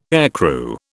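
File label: "aircrew", British English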